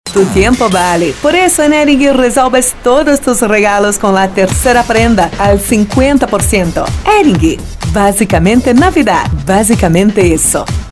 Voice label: spanish commercial

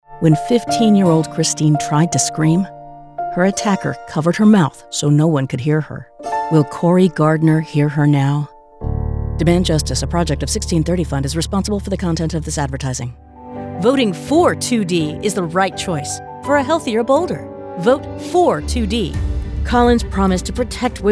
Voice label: english american political ads

